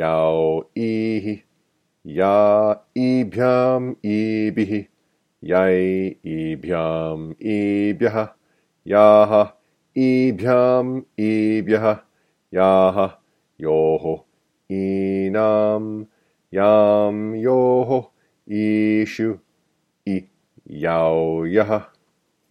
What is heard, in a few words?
Noun Endings Fast